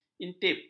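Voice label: "intip", Malay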